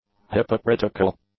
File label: "hypocritical", English; adjectives